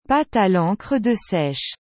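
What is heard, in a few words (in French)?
"Pates a lencre de seiche", French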